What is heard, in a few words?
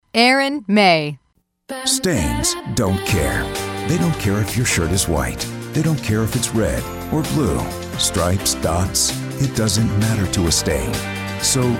COMMERCIAL